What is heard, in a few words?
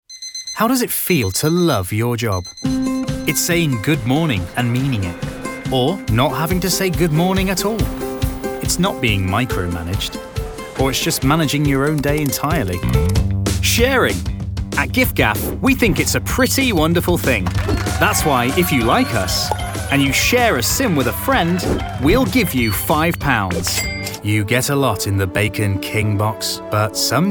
Commercial